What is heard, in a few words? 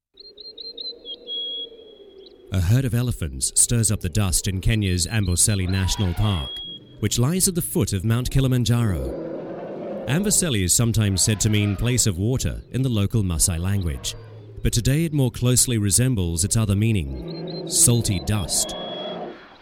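documentary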